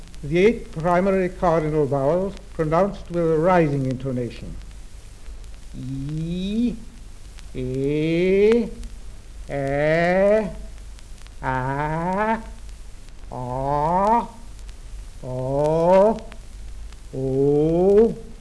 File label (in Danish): nummer 1-8 på stigende tone